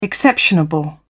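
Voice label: "exceptionable", British English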